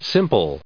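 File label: "simpler", US English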